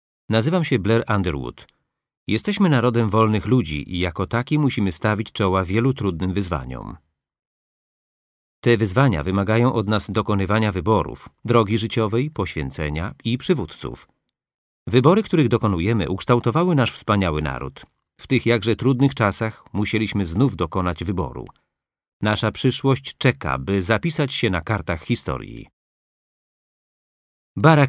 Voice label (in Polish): Polski